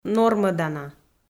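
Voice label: "нормӧдана", Komi, female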